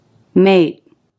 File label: "mate", English, Stop T